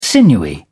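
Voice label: "sinewy", British English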